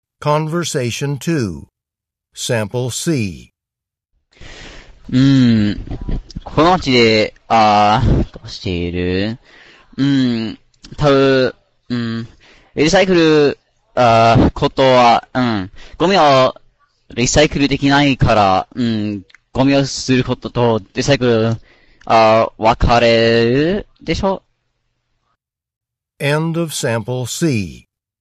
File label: Japanese convo; Conversation 2 Sample C (Score of 1)